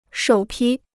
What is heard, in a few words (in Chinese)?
"首批", Chinese